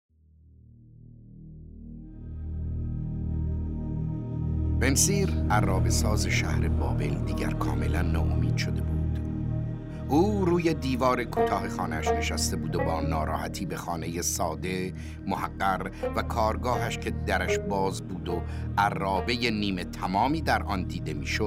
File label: announce